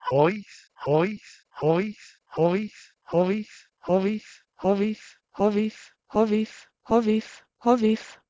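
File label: Proto-Indo-European Howis to Armenian hoviv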